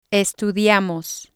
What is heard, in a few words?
"estudiamos", spanish